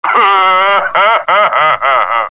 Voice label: robot laugh; robot b9